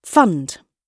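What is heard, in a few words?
"fund", British English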